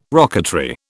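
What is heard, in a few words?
"rocketry", British English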